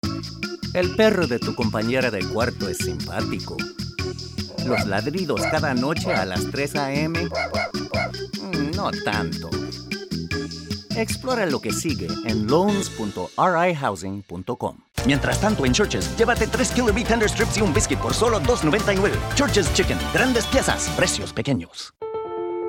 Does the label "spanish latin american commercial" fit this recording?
Yes